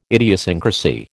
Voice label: "idiosyncrasy", American